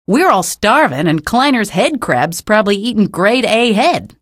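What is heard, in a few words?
citizen remarks, female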